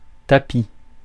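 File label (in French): "tapis", French; Mots francais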